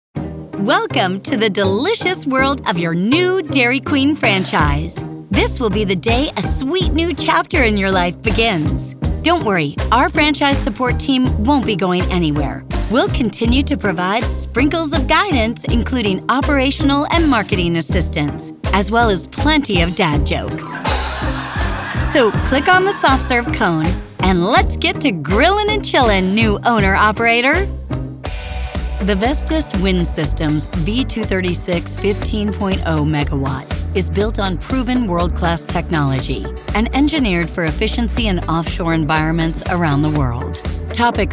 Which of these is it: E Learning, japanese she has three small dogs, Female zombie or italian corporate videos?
E Learning